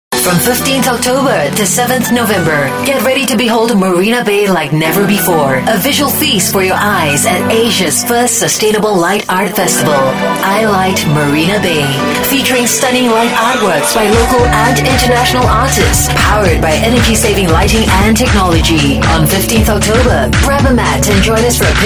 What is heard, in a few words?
Asian English Commercial, Singaporean Female